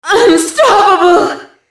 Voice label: "unstoppable", female